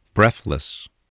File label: "breathless", spelling